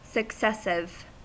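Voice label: "successive" English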